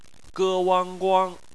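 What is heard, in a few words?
"guang" bopomofo, Chinese Phonetics; bpmf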